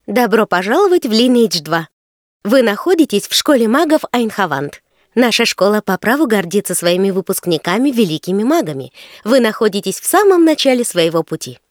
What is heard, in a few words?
tutorial voice, ru; lineage2